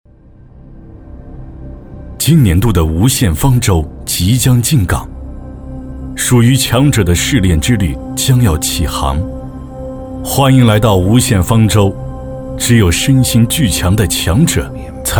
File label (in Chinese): 男 宣传片 综艺VO; 无限方舟